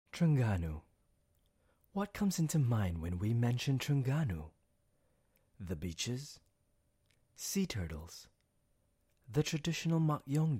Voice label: Corporate Sentimental Peaceful; TSG